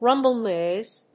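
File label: "rumble noise", English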